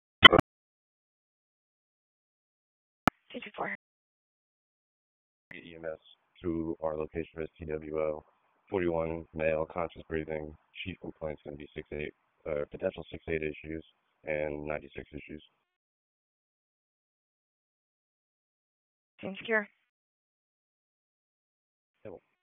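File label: Raleigh Police Northeast District Dispatch; wake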